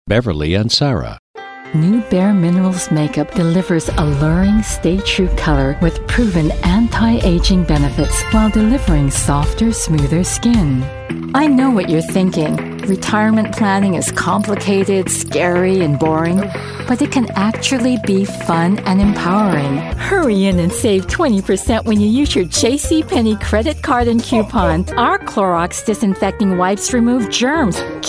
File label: VO DEMOS - Women